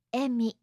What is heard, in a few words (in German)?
"emi", japanische maedchennamen